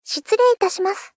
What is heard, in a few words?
Polite Bye Shitsurei itashimasu